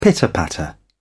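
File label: "pitter patter", British English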